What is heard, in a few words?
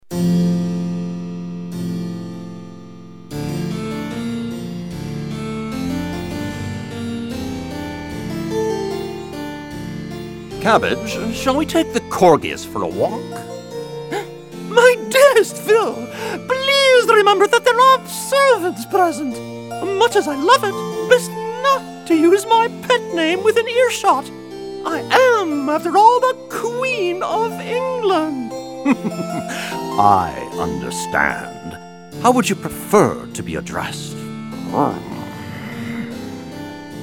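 Character, male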